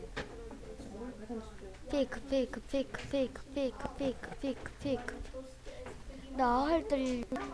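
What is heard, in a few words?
"pick", spoken word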